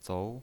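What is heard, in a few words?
"zou", Mandarin Chinese, tone 2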